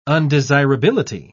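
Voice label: "undesirability", British English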